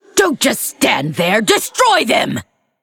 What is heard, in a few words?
Female Lich Demonic Assault